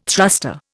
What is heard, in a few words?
"thruster", Indian